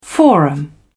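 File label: "forum", English, British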